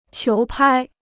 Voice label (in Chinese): "球拍", Chinese